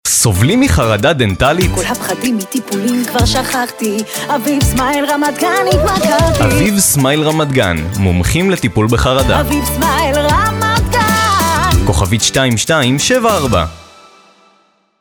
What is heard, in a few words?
hebrew narration